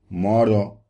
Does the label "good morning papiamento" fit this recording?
Yes